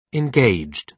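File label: "engaged" British English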